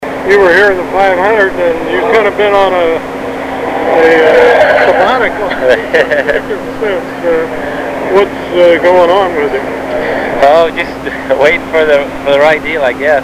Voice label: english